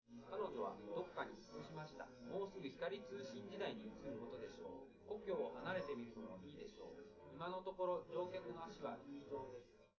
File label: male, live rec; SiSEC11; underdetermined